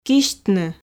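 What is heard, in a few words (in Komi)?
"кисьтны", Komi, female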